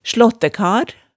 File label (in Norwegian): "slaattækar", hemsedalsmaalet